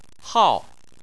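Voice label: "hao", Chinese, fourth tone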